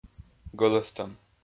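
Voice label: "гӧлӧстӧм", Komi-Zyrian, male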